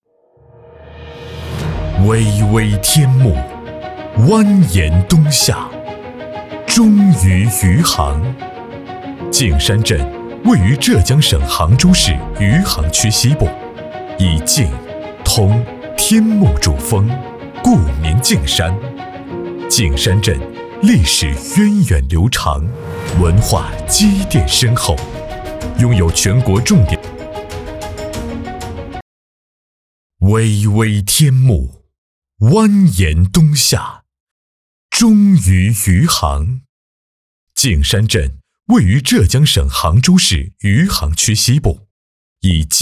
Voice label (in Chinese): 男 专题片; 砥砺奋进; 同谱华章